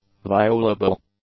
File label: "violable", English; adjectives